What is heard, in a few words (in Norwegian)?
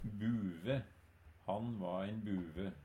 "buve", Numedalsmål